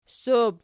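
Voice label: "ssob" nominative, Archi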